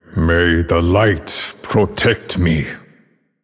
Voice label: Male Draenei Bark; Prophet Velen

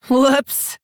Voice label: Female Orc Oops

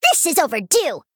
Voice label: Female Gnome Attack

